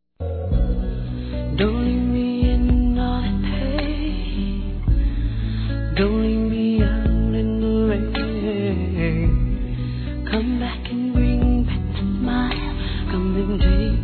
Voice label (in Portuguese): Aceito Seu Pedido de Perdão Femin, Telemensagens; Desculpas; Telemensagens 2017